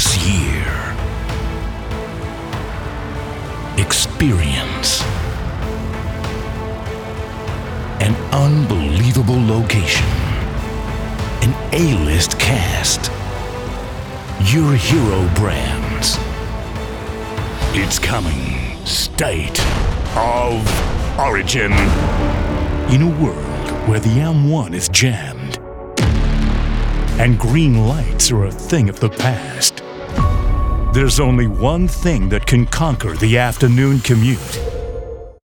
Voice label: Cinema Trailer

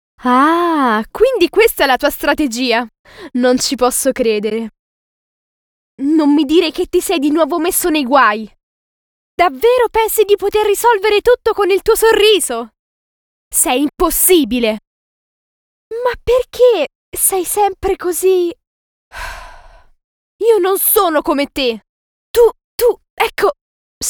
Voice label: Animation

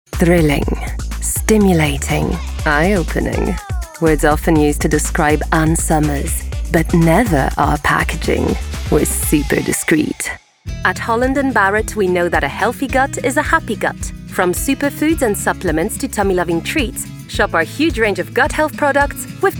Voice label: Commercial

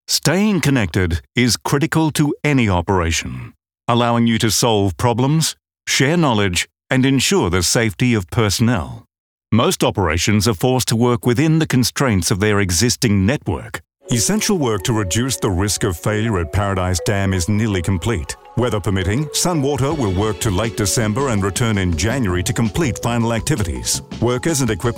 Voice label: Narration

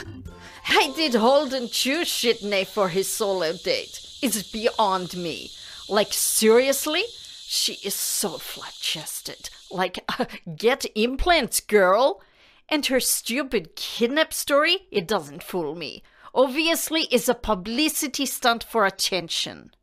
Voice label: East European character

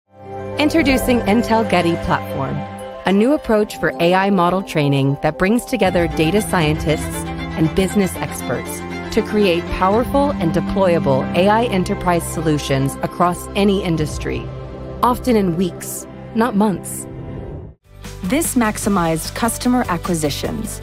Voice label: Corporate, en-US

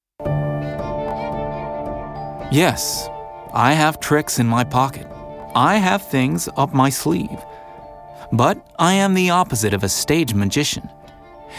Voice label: Classic Drama US Accent

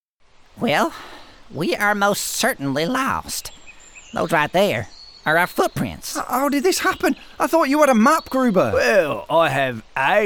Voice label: Animation

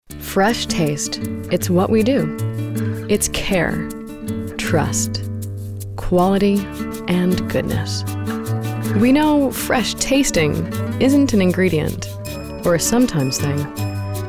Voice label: Voice Actor; BigMouthVoices

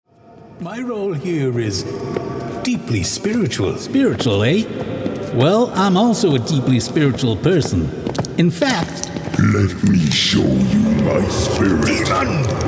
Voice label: voiceover male gaming video game

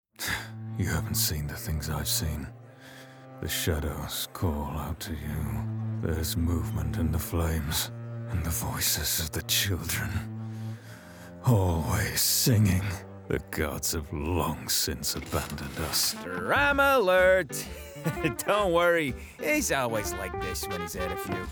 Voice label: Character

